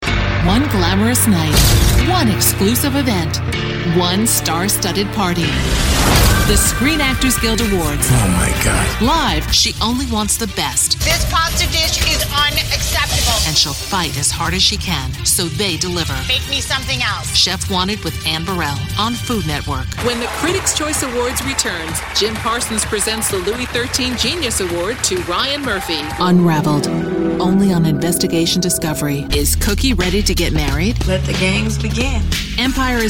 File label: Promo